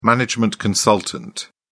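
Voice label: "management consultant", British English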